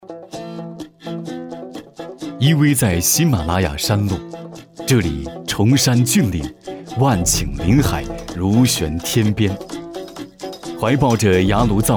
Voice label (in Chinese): 男 宣传; 隆子县藏香